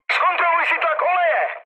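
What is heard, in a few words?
damaged oil, Czech, mood high; War Thunder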